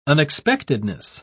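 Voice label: "unexpectedness", British English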